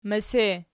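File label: "mese" nominative, Archi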